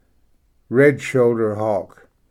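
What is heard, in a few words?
"red shouldered hawk", English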